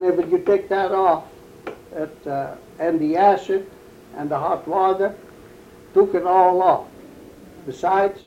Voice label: Oral history with 89 year old white male Mesa County Colorado, american english dialect recordings